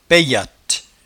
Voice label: "payatte", patois jura